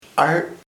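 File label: vagina vagina, Chamacoco word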